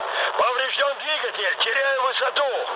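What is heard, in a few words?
Russian damaged engine mood med, speech; War Thunder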